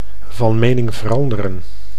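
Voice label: "van mening veranderen", Dutch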